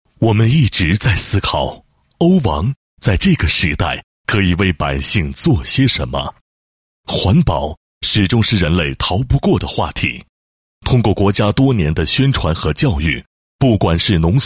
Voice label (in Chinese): 男, 品牌宣传; 欧王电动三轮车宣传